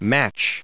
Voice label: "match", us